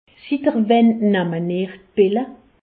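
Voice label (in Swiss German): "pill", Alsatian; medecine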